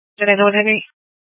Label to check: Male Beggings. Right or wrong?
Wrong